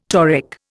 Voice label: "toric", Indian